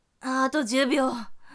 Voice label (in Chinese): 还剩10秒, 女生; 俺娘; 计时30秒